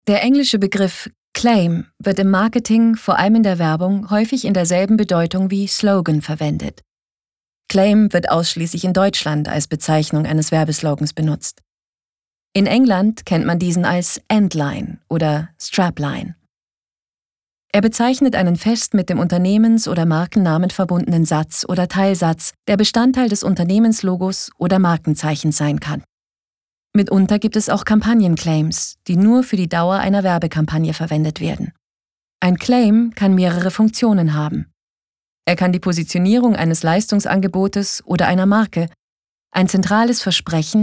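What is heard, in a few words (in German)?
commentary, Neutral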